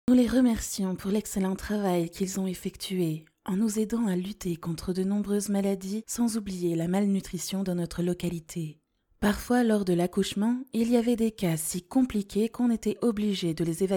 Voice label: French, Female